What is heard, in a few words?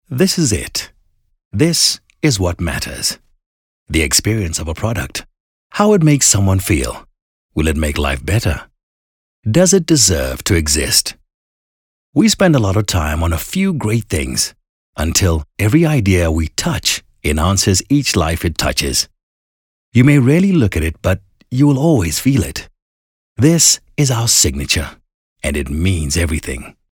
English conversational